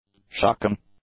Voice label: "shocking", English; adjectives